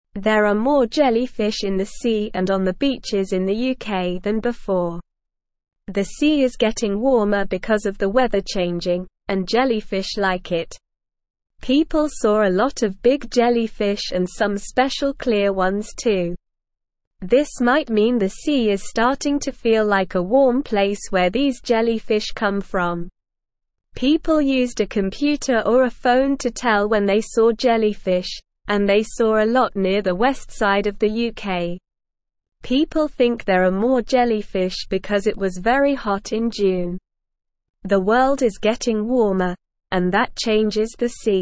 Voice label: English Beginner SLOW Reading; More Jellyfish Seen in UK Because of Warm Sea